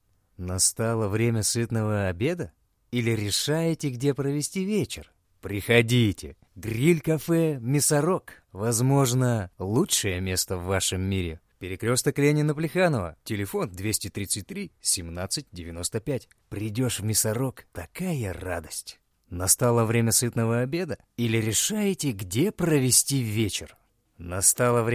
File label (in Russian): рекламный ролик